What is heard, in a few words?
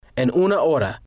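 "en Una Hora", Spanish, female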